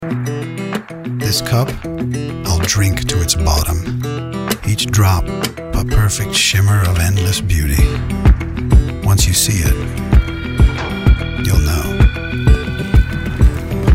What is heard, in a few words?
poetic; Reaal